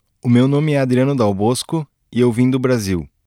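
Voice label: Portuguese male; narration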